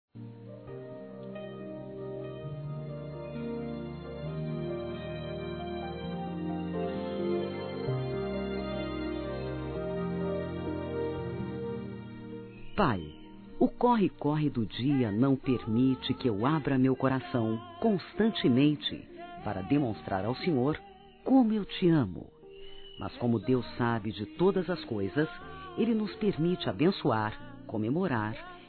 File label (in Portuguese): Pai Evangélico Feminino, Telemensagens Aniversários